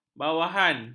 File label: "bawahan", ms